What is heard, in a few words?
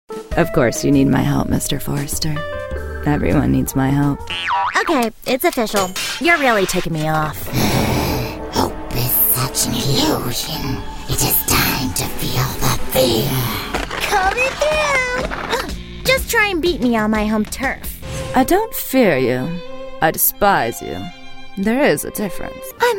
Animation